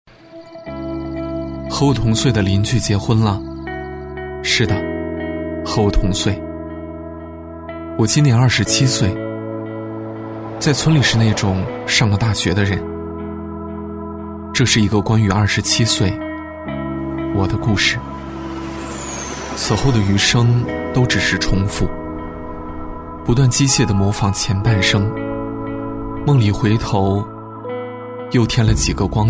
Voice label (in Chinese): 男 年轻男声独白 低沉 伤感; 年轻活力; 质感磁性